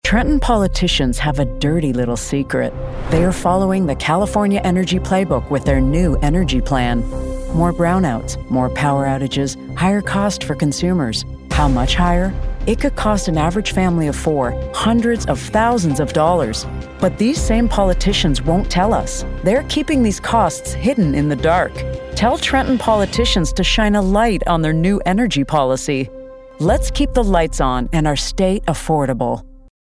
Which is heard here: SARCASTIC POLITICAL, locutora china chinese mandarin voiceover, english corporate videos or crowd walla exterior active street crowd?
SARCASTIC POLITICAL